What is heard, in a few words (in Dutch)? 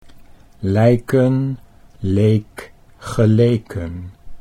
lijken leek geleken, Dutch